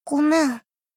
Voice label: sad sorry